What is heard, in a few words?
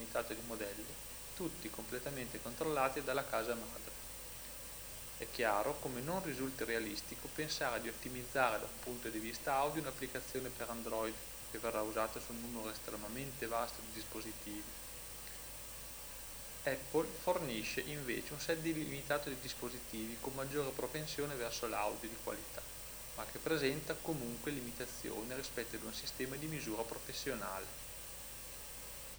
Noise Test Talker; Noise+Speech